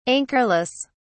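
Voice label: "anchorless", English, British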